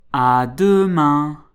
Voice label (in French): "à demain", French